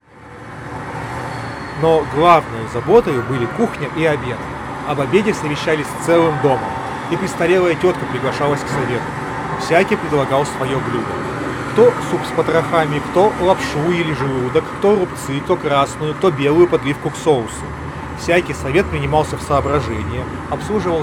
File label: Recorder noise; yandex drops